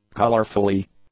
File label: "colourful", English; adjectives